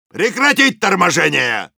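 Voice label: Soldier jeers, russian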